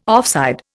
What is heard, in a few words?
"offside", Indian